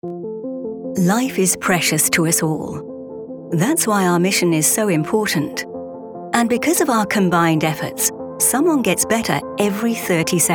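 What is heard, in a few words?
British medical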